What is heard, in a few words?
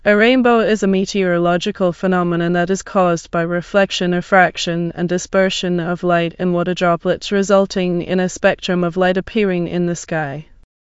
speaker, en GB; piper voices; vctk